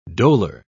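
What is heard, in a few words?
"dolour", British English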